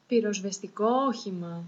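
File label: fire engine, Greek; tuxpaint-stamps; vehicles; emergency; cartoon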